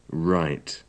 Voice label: "write", English

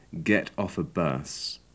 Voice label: get off a bus, english

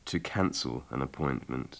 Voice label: to cancel an appointment, english

